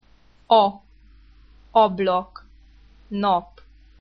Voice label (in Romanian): abc a, a ca "oa" în "foarte", în graiul maramureşean sau ca "a" din celebrul "na" transilvănean ablak (fereastră), nap (1.soare, 2.zi); Curs online de limba maghiară.